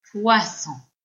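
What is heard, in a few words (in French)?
"poisson", French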